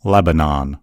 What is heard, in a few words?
"lebanon", en US; gcompris; geography